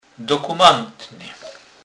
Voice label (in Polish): "dokumentny", kurpiow